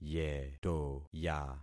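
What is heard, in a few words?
"yetoya", concatenated syllables; Affixing experiment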